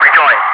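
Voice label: "rejoin", English speech, Wingman; Messages; DCS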